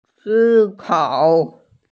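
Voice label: "sikao", Mandarin Chinese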